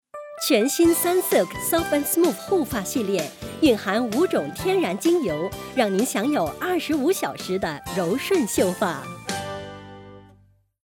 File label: Mandarin; Sunsilk